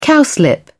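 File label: "cowslip", British English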